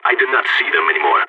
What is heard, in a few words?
lost enemy, balkan; vo